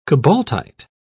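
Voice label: "cobaltite", British English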